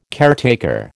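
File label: "caretaker", American English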